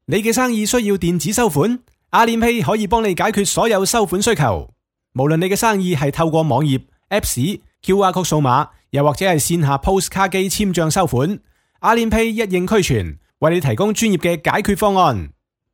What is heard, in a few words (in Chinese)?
粤语广告